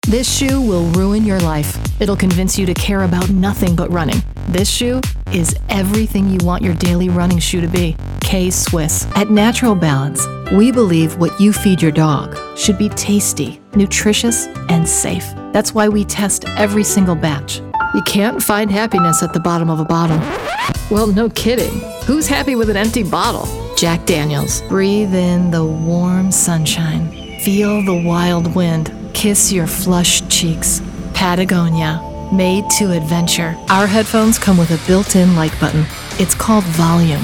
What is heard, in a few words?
Commercial VO